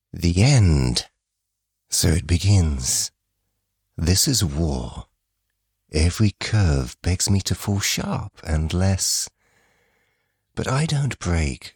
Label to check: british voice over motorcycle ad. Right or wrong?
Right